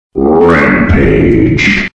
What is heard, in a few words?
announcer kill rampage